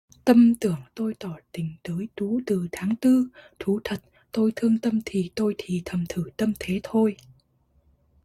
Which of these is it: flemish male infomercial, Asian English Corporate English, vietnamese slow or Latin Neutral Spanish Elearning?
vietnamese slow